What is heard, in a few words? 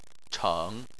"cheng3", chinese